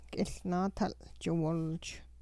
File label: cat, hupa